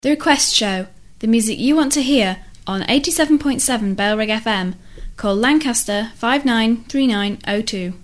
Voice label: request music want call, female, no bed; jingles ads 1994; bailrigg fm; bfm jingles ads RSL 1994

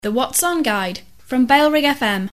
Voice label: female, no bed; bailrigg fm

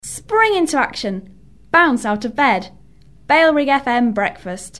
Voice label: female, no bed; spring into action, bfm break; bailrigg fm; bfm jingles ads RSL 1994

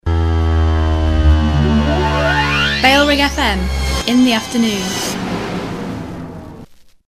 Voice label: in the aft female; bailrigg fm

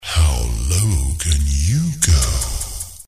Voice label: male echo, no bed; how low can you go; bailrigg fm; bfm jingles ads RSL 1994

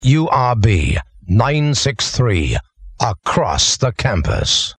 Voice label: across the campus no bed, jingle

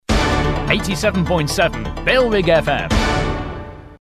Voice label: male, sting; bailrigg fm

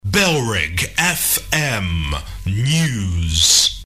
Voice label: news (no bed); bailrigg fm; bfm jingles ads RSL 1994

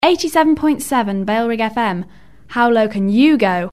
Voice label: how low can you go, female, no bed; 87.7 bfm; bailrigg fm; bfm jingles ads RSL 1994

